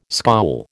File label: "scowl", American